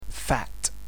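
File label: "fat", English; physicalappearance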